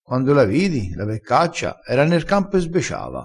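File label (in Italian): "sbecia", dialettale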